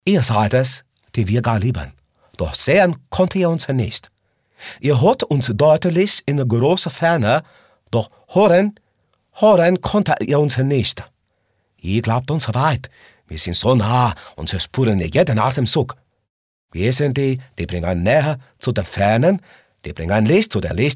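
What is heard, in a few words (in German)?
chinesisch, Akzent, maenner; Dialekt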